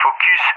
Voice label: "Focus", ENG; Allied Flight; CallSign; DCS